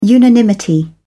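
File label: "unanimity", British English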